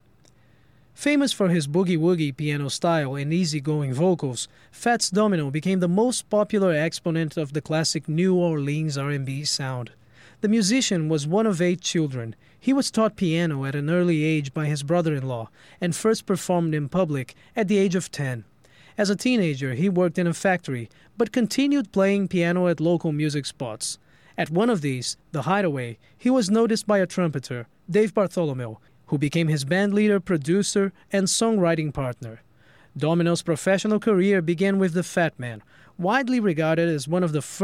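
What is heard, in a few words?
Brazilian Portuguese accented English; GoLocalise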